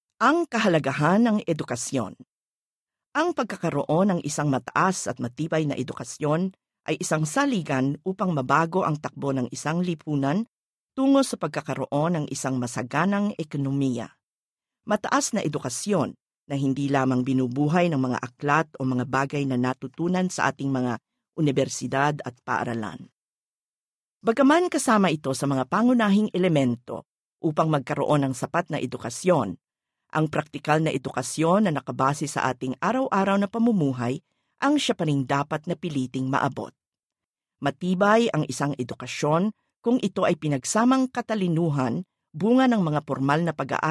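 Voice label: Tagalog, female, narration; filipino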